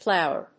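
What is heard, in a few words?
"plower", English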